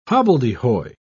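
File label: "hobbledehoy", British English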